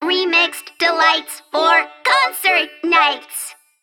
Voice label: Female Mech Play